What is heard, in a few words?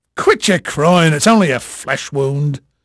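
English male, voice; Pirates Of The Caribbean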